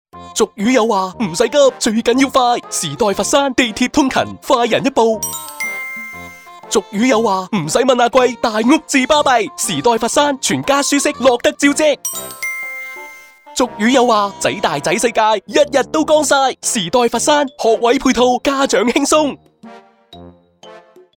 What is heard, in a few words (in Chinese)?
粤语广告; 时代佛山